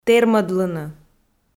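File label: "тэрмӧдлыны", Komi, female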